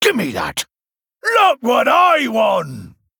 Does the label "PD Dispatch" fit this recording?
No